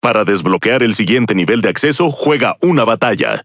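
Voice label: Spanish Speech; Access Level Unlock; World Of Warships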